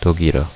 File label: "german", korean